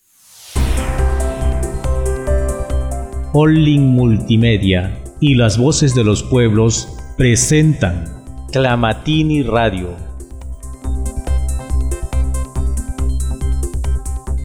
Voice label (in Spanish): spot las voces; tlamatini